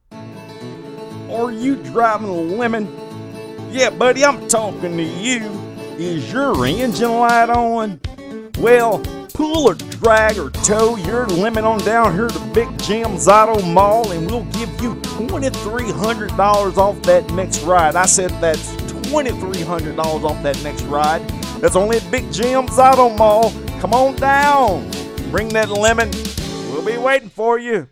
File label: Country Car Commercial